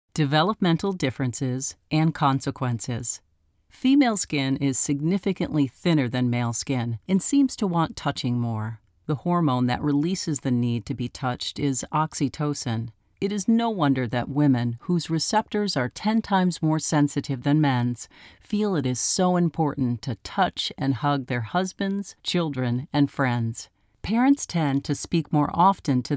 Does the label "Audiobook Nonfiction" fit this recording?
Yes